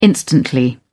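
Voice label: "instantly", British English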